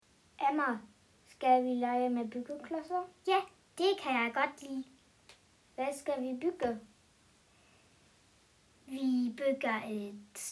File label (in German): Dialog